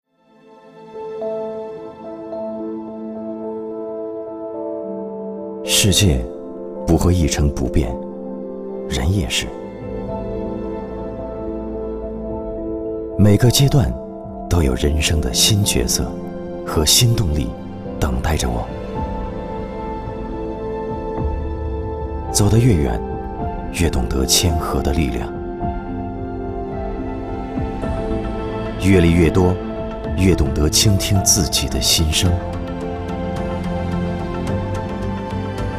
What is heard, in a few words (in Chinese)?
男 广告, 国语男声配音